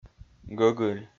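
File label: "гӧгыль", Komi-Zyrian, male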